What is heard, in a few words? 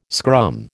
"scrum", American